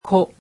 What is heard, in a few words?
"ko", japanese alphabet